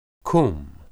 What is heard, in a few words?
"qum", Hebrew